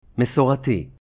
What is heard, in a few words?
"Masorti", Hebrew